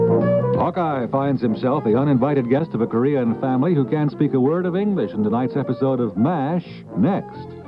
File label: promo; hawkeye; cbs